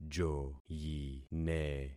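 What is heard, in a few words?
"joyine", concatenated syllables; Affixing experiment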